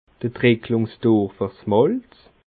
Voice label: "tricklungstur", alsace

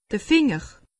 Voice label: "finger", alsace; medecine

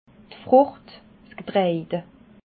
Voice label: "frucht", alsace

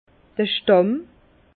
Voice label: "stamm", Bas Rhin; vin; tronc

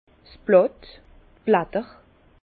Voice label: "blatt", Bas Rhin; vin; feuilles

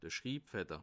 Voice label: "schribfetter", alsace

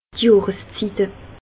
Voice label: "johreszitte", alsace

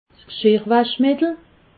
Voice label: "gschirrwaschmittel", alsace

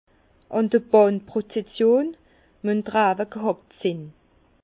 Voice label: "bannprozession", Bas Rhin; vin; à la procession des rogations les vignes doivent être piochées

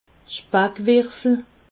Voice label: "spackwirfel", alsace

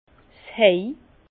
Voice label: "hej", Bas Rhin; foin; vin

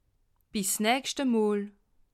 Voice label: "bis nachste", alsace

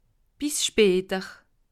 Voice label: "bis spater", alsace

